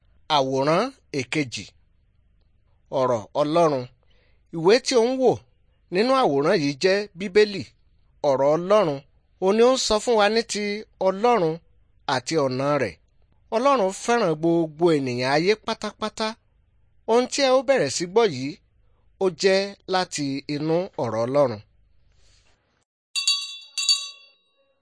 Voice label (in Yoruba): good news yoruba; bible